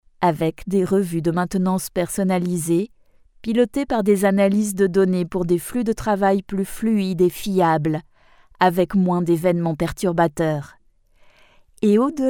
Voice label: locutora francesa french voiceover